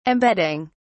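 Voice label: "embedding", British English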